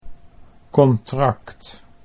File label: "contract", Dutch